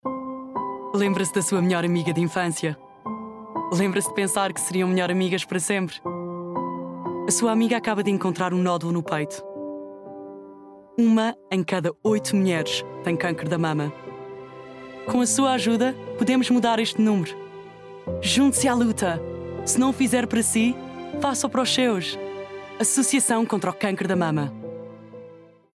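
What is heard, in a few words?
Portuguese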